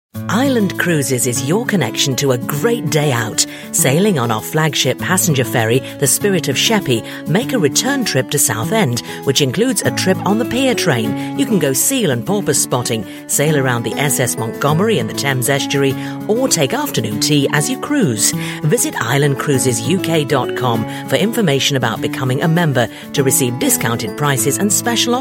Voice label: commercials